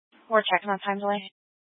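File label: Sheriff Dispatch, Scanner audio recording archive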